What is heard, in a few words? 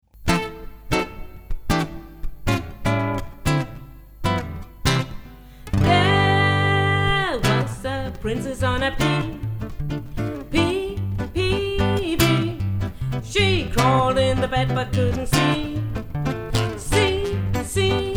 english; Prinsessen på ærten